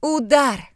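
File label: hit, Ukrainian; gcompris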